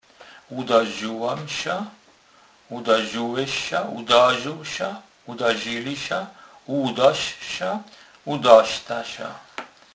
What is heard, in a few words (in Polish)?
"ludazec", kurpiow